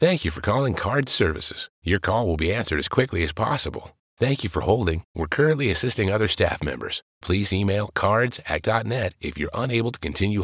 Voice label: IVR Phone